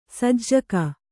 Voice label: "ಸಜ್ಜಕ", Kannada